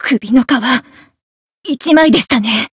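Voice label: win barely, Japanese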